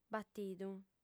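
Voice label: "batidu", female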